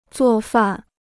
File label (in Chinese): "做饭", Chinese